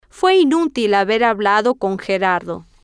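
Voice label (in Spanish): fue inutil haber hablado con Gerardo, Spanish, female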